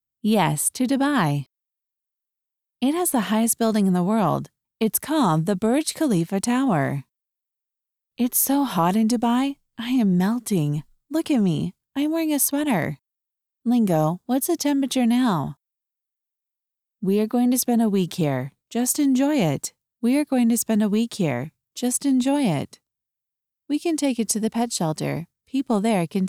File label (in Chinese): 外籍美式英语女声对话角色